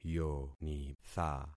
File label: "yonitha", concat syllables; Affixing experiment